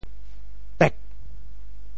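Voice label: "pek4", Teochew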